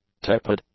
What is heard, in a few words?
"tepid", English; adjectives